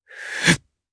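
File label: Vox Casting Japanese; Lucias; kingsraid; jp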